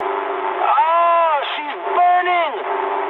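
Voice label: English on fire mood med; War Thunder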